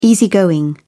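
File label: "easy going", British English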